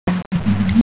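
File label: "xue2xiao4" Mandarin Chinese, female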